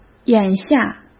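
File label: "眼下", Mandarin Chinese; chinese-flashcards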